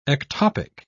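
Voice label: "ectopic", British English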